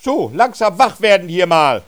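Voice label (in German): "wach", äusserungen westfalen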